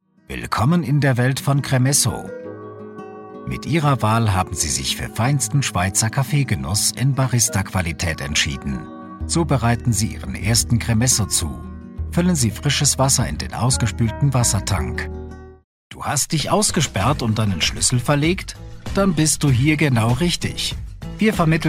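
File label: German, male, German Explainer Videos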